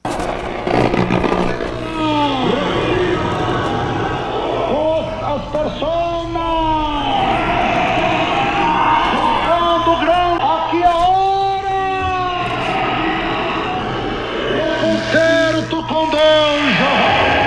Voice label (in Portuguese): Missionario De Deus (Radio)